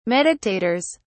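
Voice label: "meditators", English, British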